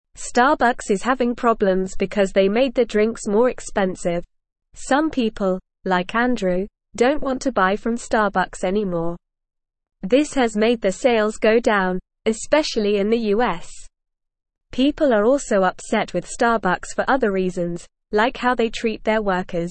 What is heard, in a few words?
English NORMAL Reading; Starbucks Faces Sales Drop and Customer Anger